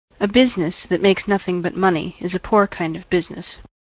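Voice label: A business that makes nothing but money is a poor kind of business, US English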